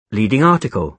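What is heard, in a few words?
"leading article", British English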